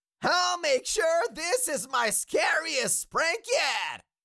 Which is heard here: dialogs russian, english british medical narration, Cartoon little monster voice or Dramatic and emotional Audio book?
Cartoon little monster voice